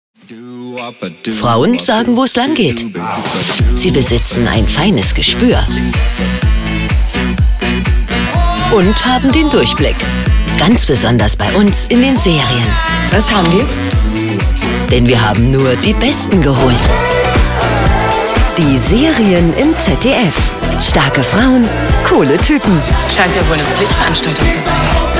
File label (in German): TV Trailer; LOFT VOICES